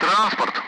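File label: Speech Sound Russian Common Allied Flight; DCS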